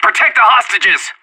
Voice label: hostages being taken, player vo anarchist; Counter-Strike Global Offensive

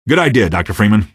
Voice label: squad affirm, male; npc; Garry's Mod; hl2

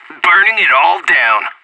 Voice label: molotov, player vo anarchist; Counter-Strike Global Offensive

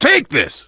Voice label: throw items, survivor voice biker; finale l4d1; Left 4 Dead 2

